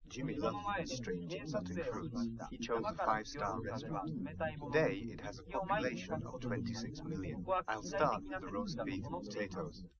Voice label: test male liverec 130ms 5cm src; SiSEC08; SiSEC underdetermined

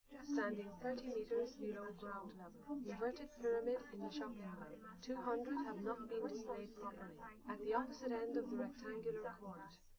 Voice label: female

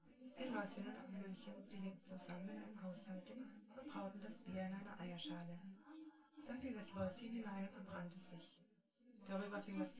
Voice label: female synthconv

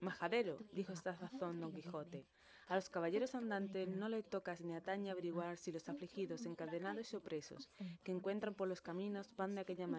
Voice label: test female inst sim

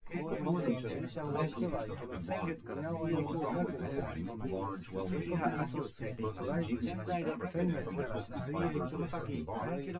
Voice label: test male synthconv 130ms 5cm src; SiSEC08; SiSEC underdetermined

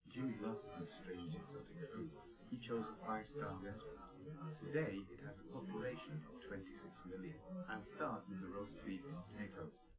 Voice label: male live rec 1m sim; SASSEC07

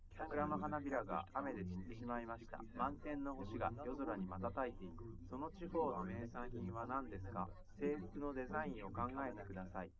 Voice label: male liverec; SiSEC10; underdetermined

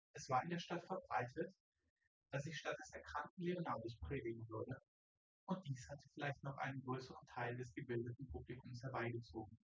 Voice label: male; SiSEC10; underdetermined

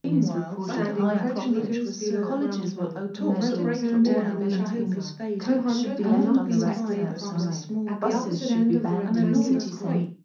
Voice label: female mix; SiSEC10; underdetermined

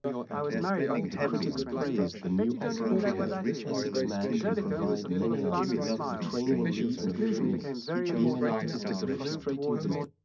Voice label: male mix; SiSEC10; underdetermined

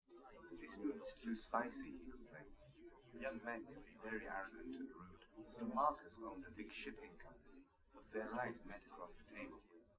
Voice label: male liverec; SiSEC11; underdetermined

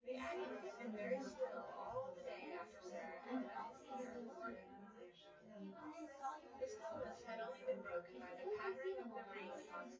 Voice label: female

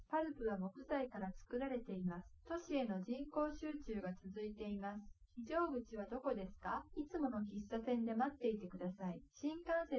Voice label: female liverec; SiSEC08; SiSEC underdetermined

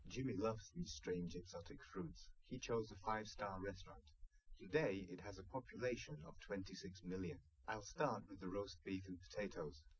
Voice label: male liverec 130ms 1m sim

